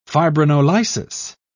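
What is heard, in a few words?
"fibrinolysis", English, British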